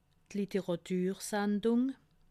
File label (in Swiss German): "literatusandung", alsace